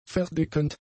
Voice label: "verdickend", German; adjectives